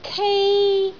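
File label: "kei1", Cantonese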